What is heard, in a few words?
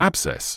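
"abscess", British English; AB-sess; ˈæb.ses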